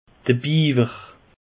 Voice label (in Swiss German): "biewer", alsace; peche